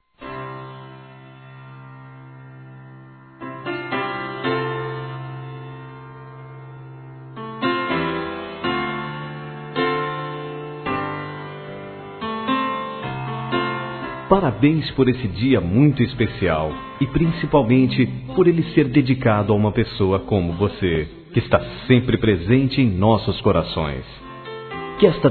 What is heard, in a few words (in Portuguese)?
Aniversário Sobrinho Masculino; Aniv Familiar